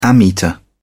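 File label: "ammeter", English, British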